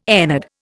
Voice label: "ennead", Indian